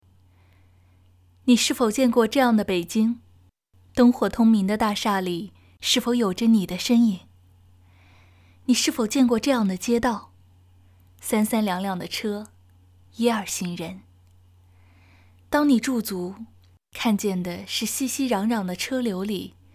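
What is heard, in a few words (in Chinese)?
短视频旁白配音, 女配音 青年 普通话 旁白; 凌晨的北京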